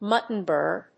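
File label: "mutton bird", English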